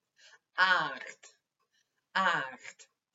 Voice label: "Aaacht", dialect Sprang-Capelle

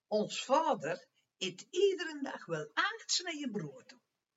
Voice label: Aaacht oons vaoder it iederen dag, dialect Sprang-Capelle

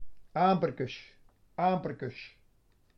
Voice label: "Aamperkes", dialect Sprang-Capelle

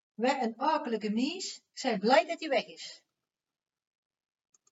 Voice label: Aokelik We nen aokelike meens, dialect Sprang-Capelle

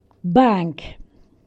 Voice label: "Baank", dialect Sprang-Capelle

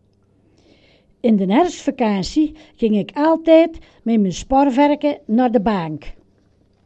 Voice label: Baank in dn herfstvekaansie ging ik, dialect Sprang-Capelle

